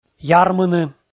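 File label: "ярмыны", Komi, male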